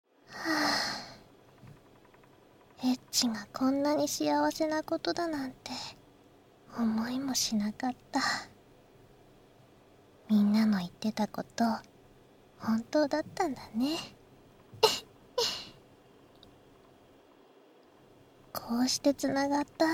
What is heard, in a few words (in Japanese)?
添い寝, ASMR